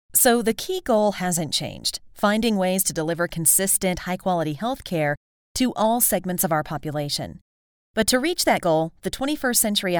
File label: Podcasting